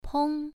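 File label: "peng", Mandarin Chinese syllable, first tone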